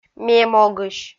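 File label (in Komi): "ме могысь", Komi-Zyrian, female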